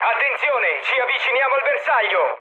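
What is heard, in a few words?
Italian near waypoint mood med, speech; War Thunder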